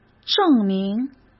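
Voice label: "证明", Mandarin Chinese; chinese flashcards; hsk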